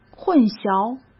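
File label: "混淆", Mandarin Chinese; chinese-flashcards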